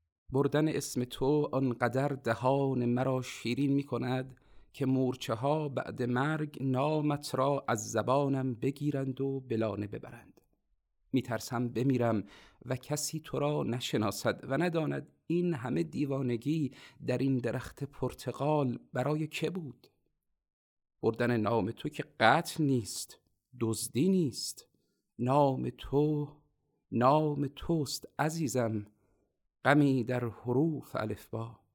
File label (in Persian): نمونه صدای دوم شعر; گوینده